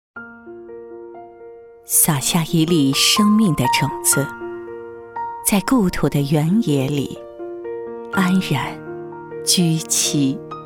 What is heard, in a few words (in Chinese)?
女 温柔深情 诗歌, 国语女声配音; 全能配音